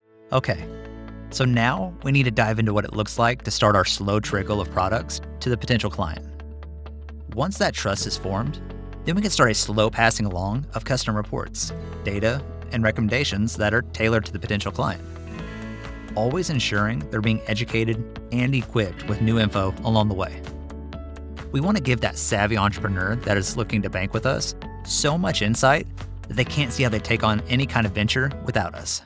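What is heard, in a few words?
Financial Sales, Professional Male Voice Actor, American male; Demo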